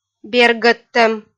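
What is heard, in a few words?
"бергӧдтӧм", Komi-Zyrian, female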